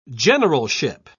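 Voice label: "generalship", British English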